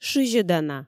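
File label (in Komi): "шызьӧдана", Komi, female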